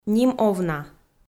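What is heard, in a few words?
"ним-овна", Komi-Zyrian, female; kpv audio dict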